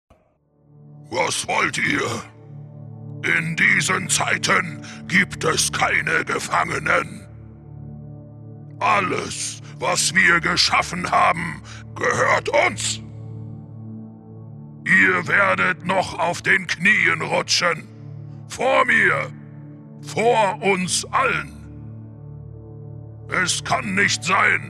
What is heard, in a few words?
pitched deep anime; The Dwarf King